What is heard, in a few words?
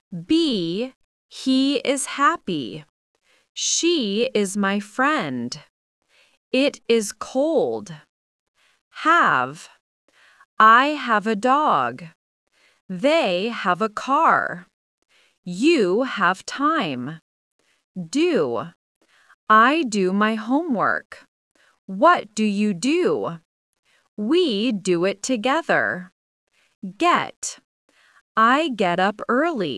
AI speech